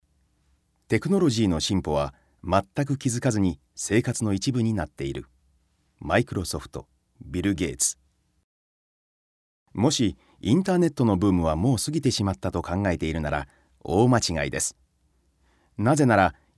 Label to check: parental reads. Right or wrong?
Wrong